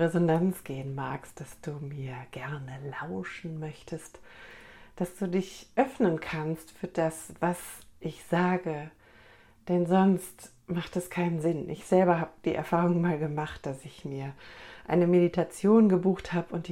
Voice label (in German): Stimmprobe Rauhnaechte